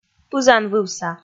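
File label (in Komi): "пызанвывса", Komi, female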